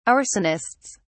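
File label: "arsonists", British English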